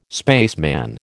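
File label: "spaceman", American English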